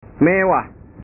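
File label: "mewah", Indonesian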